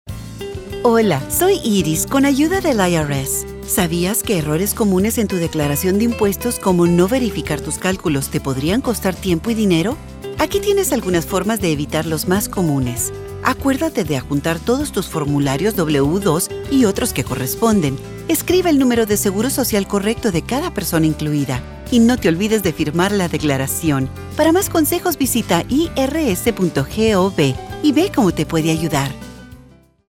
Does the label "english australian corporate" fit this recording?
No